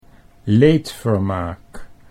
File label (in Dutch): "leedvermaak", Dutch